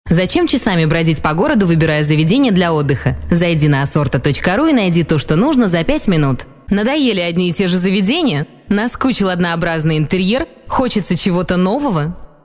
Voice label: russian female voiceover locutora rusa